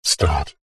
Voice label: science fiction computer console voice robotic male says start; zapsplat